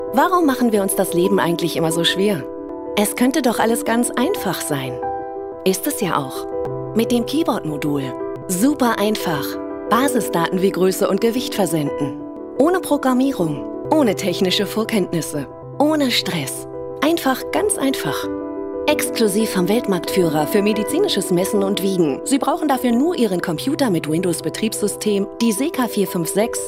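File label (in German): Erklärfilm; seca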